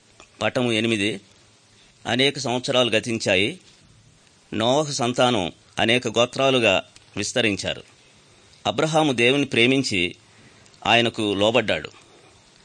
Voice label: good news telugu